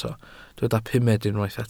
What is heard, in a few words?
"pumed", welsh